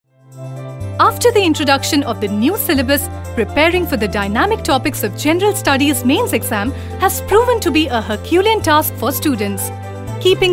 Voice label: EXPLAINER VIDEO ENGLISH

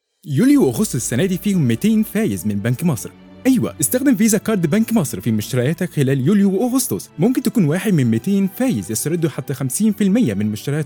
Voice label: ad; Bank masr

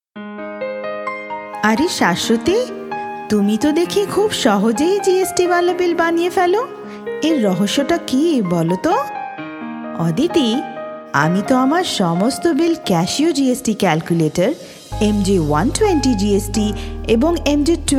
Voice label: narration bengali

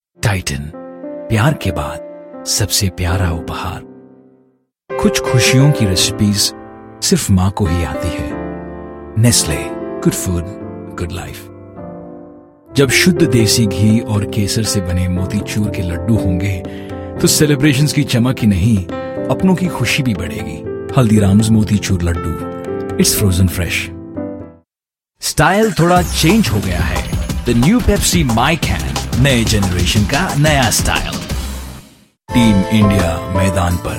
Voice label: promos hindi

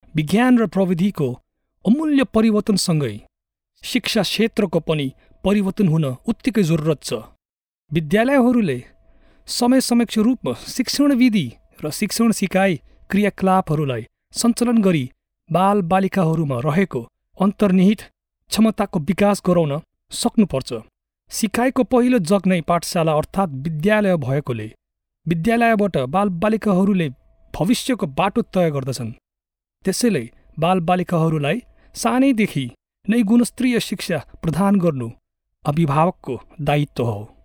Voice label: nepali

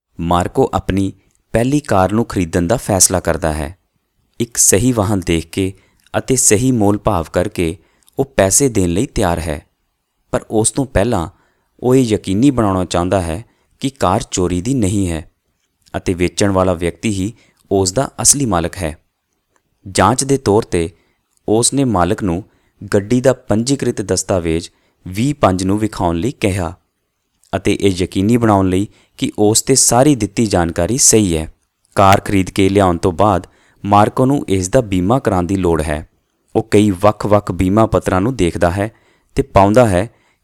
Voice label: narration punjabi

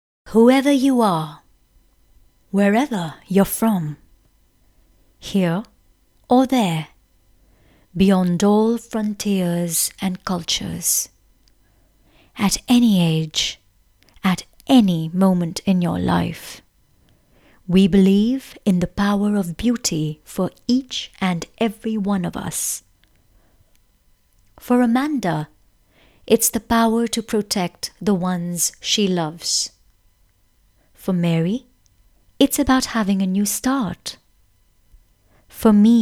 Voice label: English VO

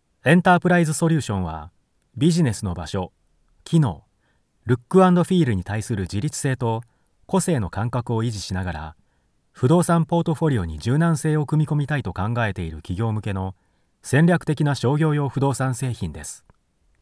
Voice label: japanese

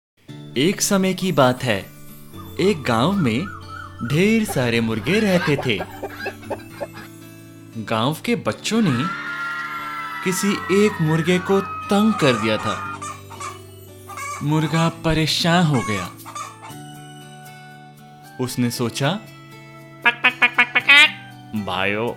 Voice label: story hindi

